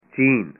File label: "China", punjabi